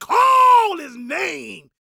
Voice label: PREACHIN, Gospel, PREACHER; Vocal Planet Vol1 Gospel